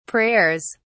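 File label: "prayers", English, British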